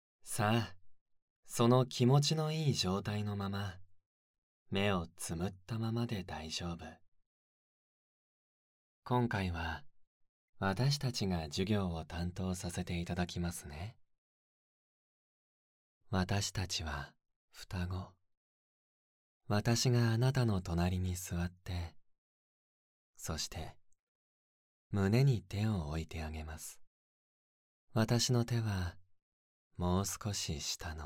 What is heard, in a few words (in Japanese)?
イメージ誘導 砂のお城 バイノーラル; 催眠スクール〜催眠にかかる為の催眠音声〜